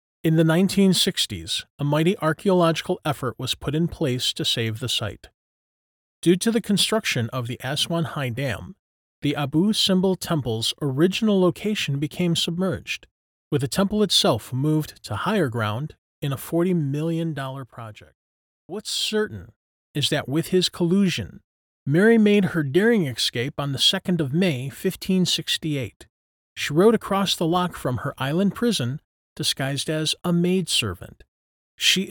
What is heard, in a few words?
Narration